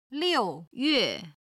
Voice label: "liu4yue4", Chinese